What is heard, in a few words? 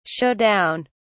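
"show down", British English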